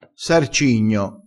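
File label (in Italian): "sarcigno", vocabolario dialettale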